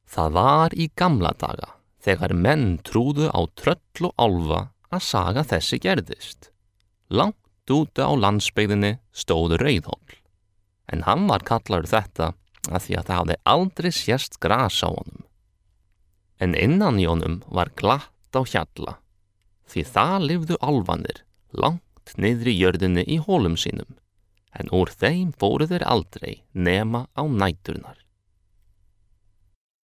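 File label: narration Icelandic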